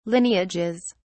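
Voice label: "lineages", British English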